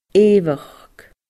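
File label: "eughachd", Gaelic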